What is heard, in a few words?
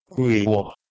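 "gwih3uo", Proto-Indo-European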